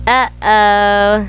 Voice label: uh oh